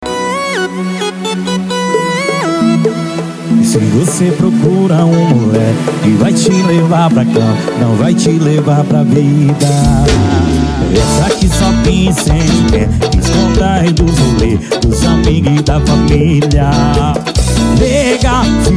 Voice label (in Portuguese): locutor a voz magnifica